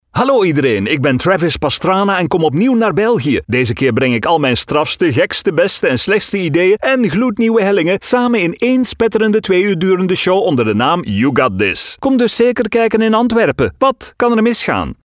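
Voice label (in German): werbung, Flemish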